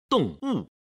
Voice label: "dong wu", Chinese